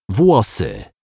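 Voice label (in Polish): "włosy", Polish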